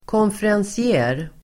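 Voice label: "konferencier", Swedish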